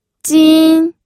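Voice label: "jin", Mandarin Chinese, first tone; pinyin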